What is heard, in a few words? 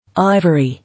"Ivory", English